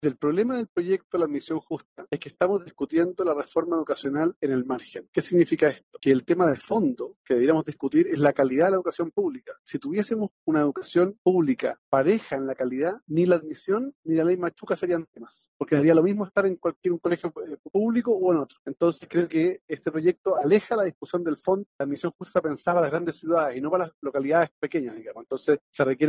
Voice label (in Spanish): cuña senador; SENADOR HARBOE